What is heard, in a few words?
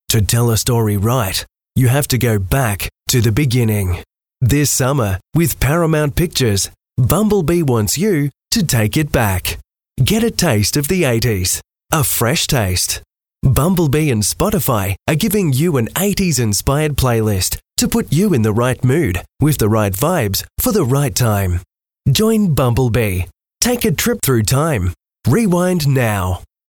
english australian movie trailers